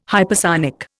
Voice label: "hypersonic", Indian